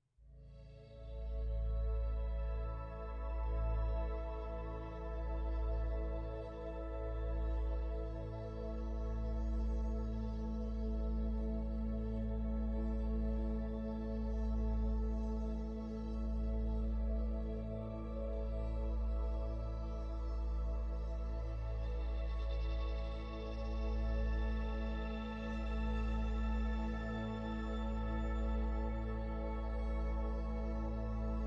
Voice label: Forgiveness Guided Meditation